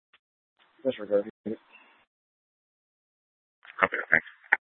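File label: police dispatch, scanner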